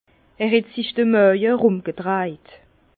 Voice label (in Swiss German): "maaje", Bas Rhin; vin; il s’est tordu l’estomac